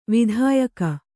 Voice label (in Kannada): "ವಿಧಾಯಕ", Kannada